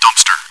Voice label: chitchat, bot, voice commands; svencoop